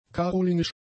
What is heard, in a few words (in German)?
"karolingisch", German; adjectives